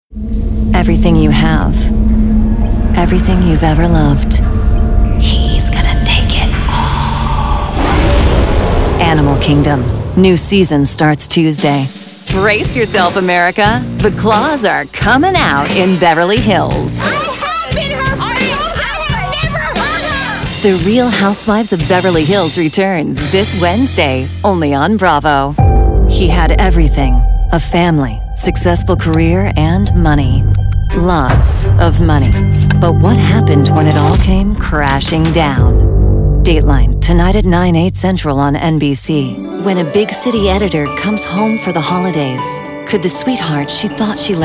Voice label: Promo Demo